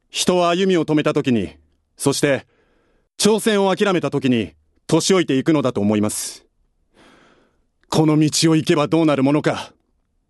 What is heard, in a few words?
male voice